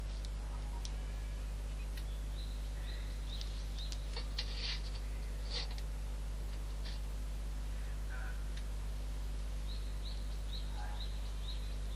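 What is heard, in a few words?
voice change male to female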